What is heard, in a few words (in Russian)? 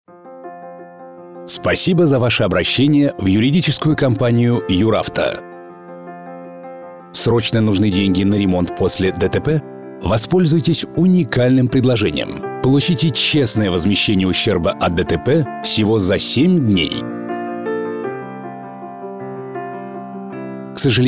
Голосовое приветствие IVR; АВТООТВЕТЧИКИ; Компания Юр-Авто